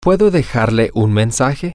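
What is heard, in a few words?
can I leave him her a message, Spanish, male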